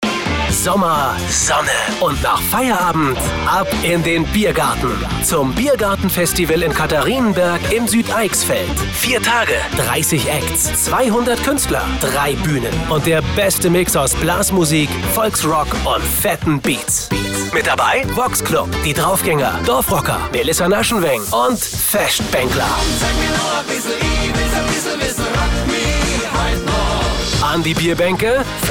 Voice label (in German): Werbung Funk, Commercial, German; Biergartenfestival